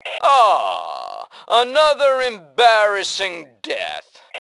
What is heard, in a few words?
Taunt Aww, Male; T2 Male 03